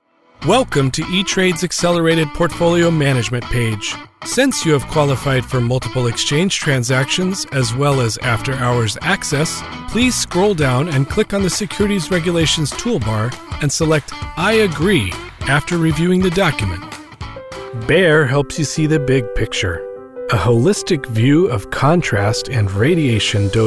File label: narration